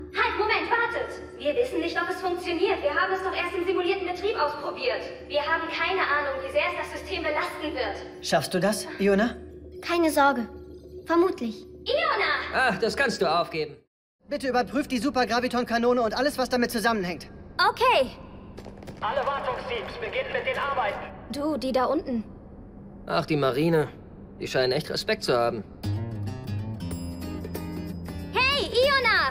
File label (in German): Synchron Anime